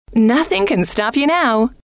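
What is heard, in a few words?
nothing can stop you now, female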